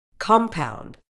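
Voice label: "compound", British English; ˈkɒm.paʊnd; KOM-pownd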